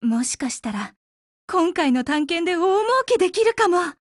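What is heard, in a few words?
Japanese, Voice; OPERATION BEGIN; GF Alarm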